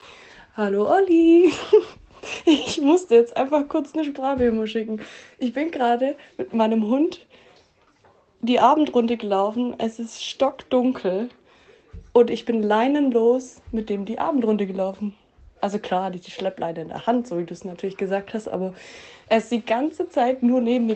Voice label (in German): Kundenstimmen